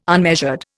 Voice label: "unmeasured", Indian

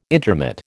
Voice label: "intermit", American

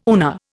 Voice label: "UNA", Indian

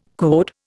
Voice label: "code", Indian

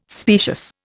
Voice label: "specious", Indian

